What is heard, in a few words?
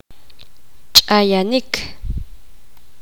poqomam; golpear pegar